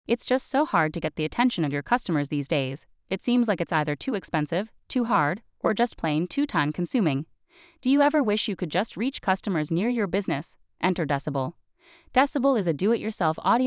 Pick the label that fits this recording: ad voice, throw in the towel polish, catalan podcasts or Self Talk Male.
ad voice